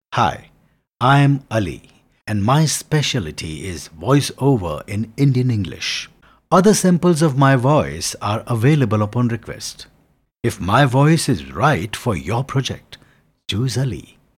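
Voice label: Hindi English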